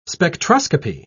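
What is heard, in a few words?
"spectroscopy", English, British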